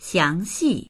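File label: "xiang2xi4", Mandarin Chinese; 详细; 詳細な、詳しい; dictionary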